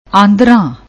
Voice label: "andran", Punjabi